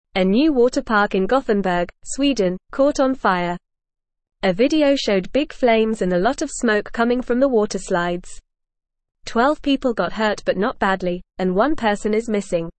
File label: English Lower Intermediate FAST Reading; Fire at Water Park in Sweden Big and Scary